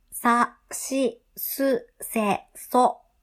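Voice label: "sa shi su se so", Japanese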